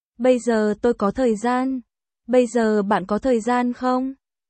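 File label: Vietnamese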